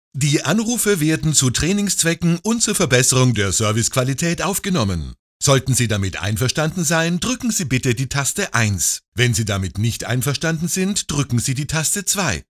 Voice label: narration, german voiceover